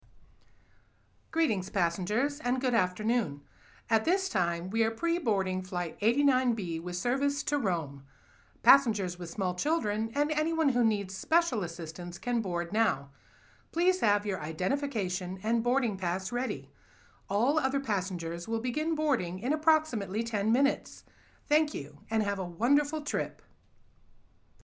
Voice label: Airline PreBoarding Announcement